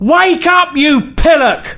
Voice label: Look Out, male; svencoop